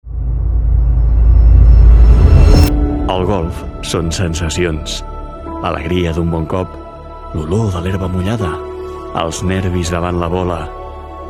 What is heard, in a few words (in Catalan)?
Locutor Catala